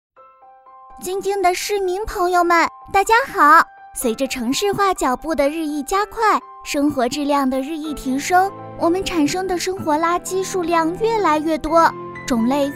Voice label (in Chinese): 女童垃圾分类